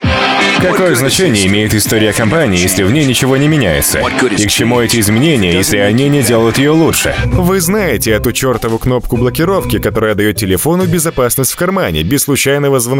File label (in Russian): Мужской